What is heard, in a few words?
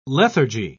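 "lethargy", British English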